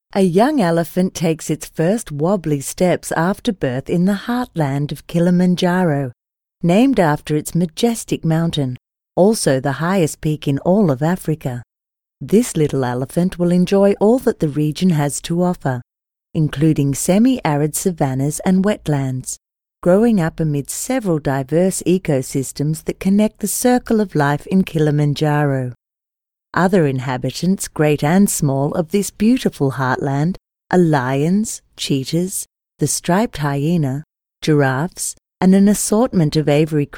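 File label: English Australian female documentary; accent of australia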